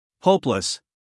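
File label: "hopeless", American English, male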